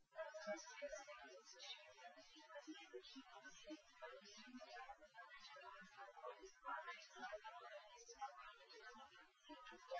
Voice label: female liverec 250ms 5cm; SiSEC08; SiSEC underdetermined